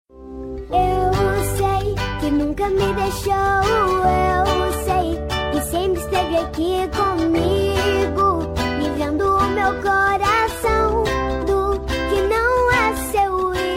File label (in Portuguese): dia dos pais feminina; Voz Feminina